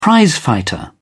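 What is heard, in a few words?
"prizefighter", British English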